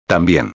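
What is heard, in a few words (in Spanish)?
"tambien", Spanish; regras de acentuação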